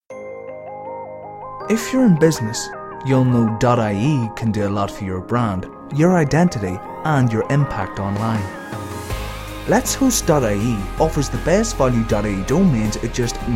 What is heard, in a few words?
Commercial